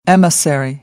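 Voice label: "emissary", American English; AmericanBritish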